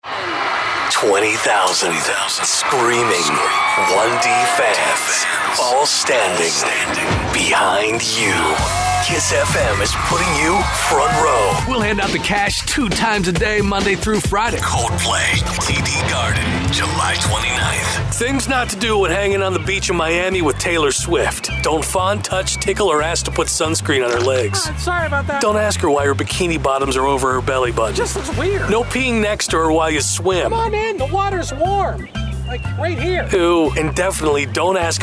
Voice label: radio chr